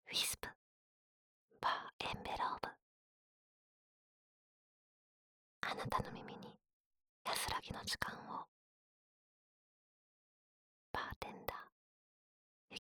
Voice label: タイトルコール, ASMR

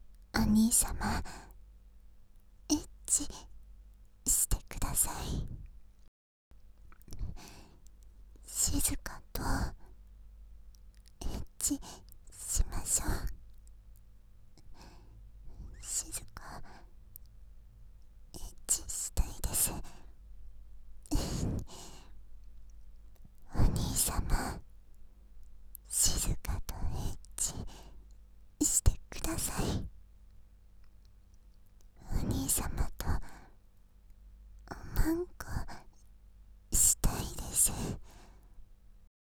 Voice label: エッチしよ♪ はにかみ

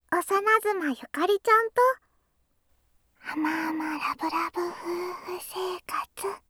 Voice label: タイトルコール

